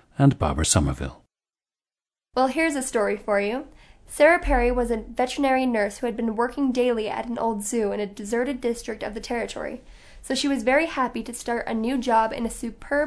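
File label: utah, International Dialects of English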